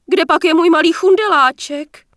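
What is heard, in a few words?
Human Female Flirt, Czech; wowpreklad; czwow; HumanVocalFemale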